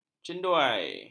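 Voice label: "cenduai", Malay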